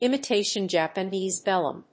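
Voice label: "imitation Japanese vellum", English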